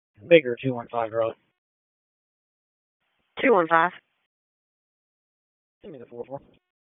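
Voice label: Police Northeast District Disp, radio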